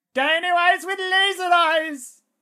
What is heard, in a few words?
battle chatter